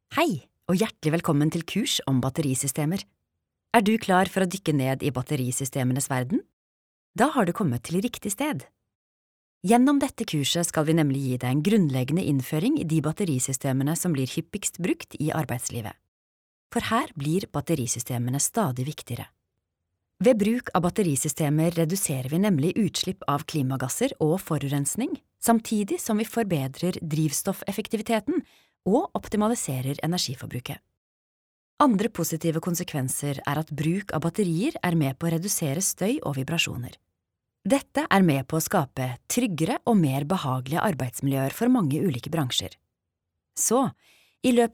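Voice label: Norwegian e-learning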